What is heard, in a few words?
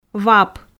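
"вап", Komi, female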